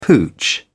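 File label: "pooch", British English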